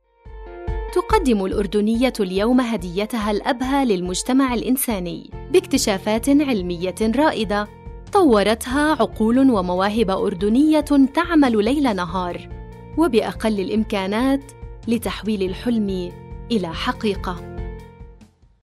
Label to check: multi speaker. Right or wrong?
Wrong